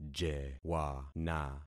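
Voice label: "jewana", concatenated syllables; Affixing experiment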